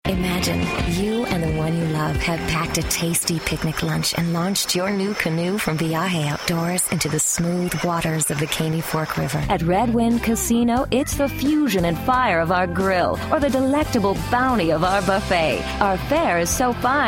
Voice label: alluring